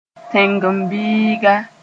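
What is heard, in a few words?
"tẽng-n-biiga", Mooré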